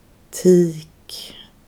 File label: "bitch" standard